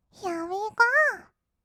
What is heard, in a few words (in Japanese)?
ひあうぃーごー, kawaii voice; ASMR; 1200 kawaii voice!!; 音ゲー